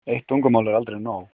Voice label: one language, Icelandic